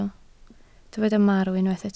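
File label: "marw" Welsh, spoken word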